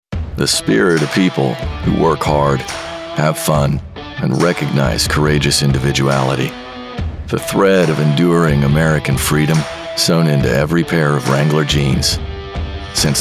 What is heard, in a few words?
commericial